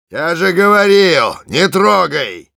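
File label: special completed, Russian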